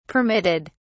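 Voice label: "permitted", British English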